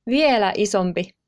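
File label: "viela isompi", Finnish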